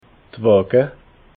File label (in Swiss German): "waga", alsace